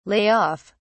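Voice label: "lay off", British English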